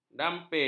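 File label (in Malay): "dampil", Malay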